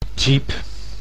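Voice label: "jeep", Dutch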